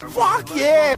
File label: fuck you english; Voice; expletives